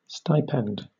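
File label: "stipend", British English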